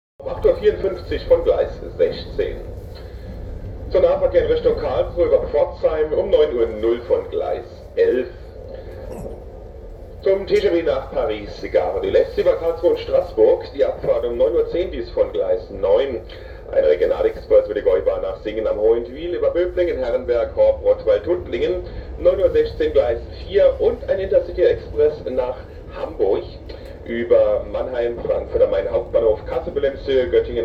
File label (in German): Freudige Durchsage in der Bahn